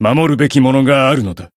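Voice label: Voice variable chant Japanese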